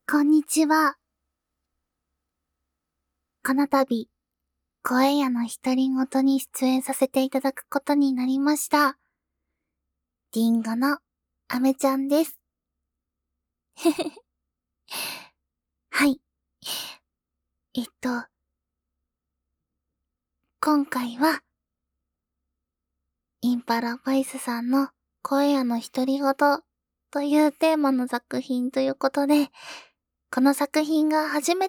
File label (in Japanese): ノイズ除去 自己紹介から質問まで